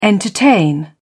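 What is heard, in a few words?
"entertain", English, British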